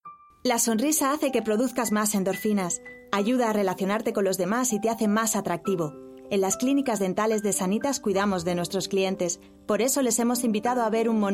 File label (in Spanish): locuciones voice over; Sanitas